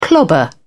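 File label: "clobber", British English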